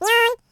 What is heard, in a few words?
voiceclip happy